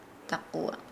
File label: Nukuoro; tuna